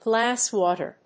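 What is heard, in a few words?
"glass water", English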